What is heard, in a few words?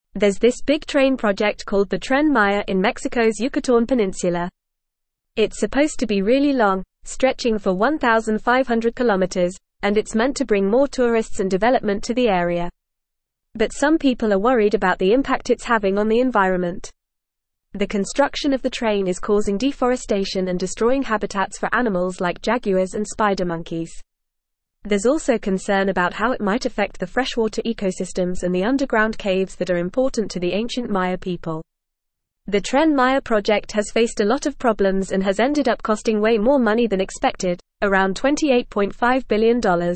English Upper Intermediate FAST Reading; English Newsroom; There’s this big train project called the Tren Maya in Mexico’s Yucatán Peninsula. It’s su